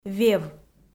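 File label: "вев", Komi-Zyrian, female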